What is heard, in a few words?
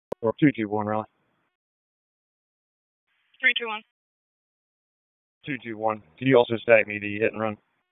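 Police District Dispatch, p25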